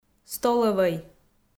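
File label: "столӧвӧй", Komi-Zyrian, female; audio dict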